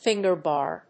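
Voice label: "finger-bar", English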